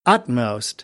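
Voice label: "utmost", American, English; AmericanBritish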